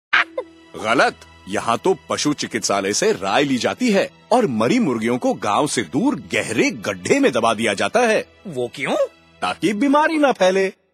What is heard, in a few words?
Radio Hindi